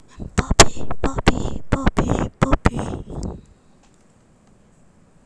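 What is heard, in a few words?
"puppy", spoken word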